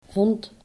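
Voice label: "vond", Dutch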